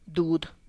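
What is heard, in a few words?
"dud", Tlacochahuaya Zapotec; nipple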